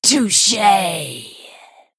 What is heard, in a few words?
Female Human